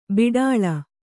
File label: "ಬಾಟಲಿ", Kannada